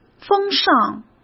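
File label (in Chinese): "风尚", Mandarin Chinese; hsk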